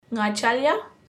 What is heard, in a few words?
"ngaityalya", kaurna pronunciation